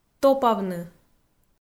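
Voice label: "топавны", Komi, female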